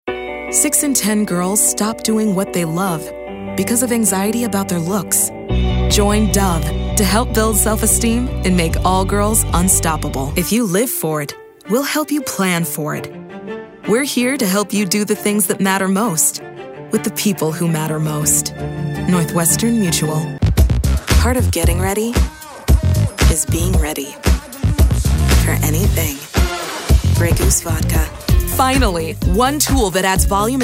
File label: Commercial